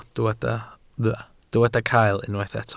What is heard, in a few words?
"cael", Welsh